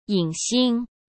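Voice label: "影星", Mandarin Chinese; chinese-flashcards; hsk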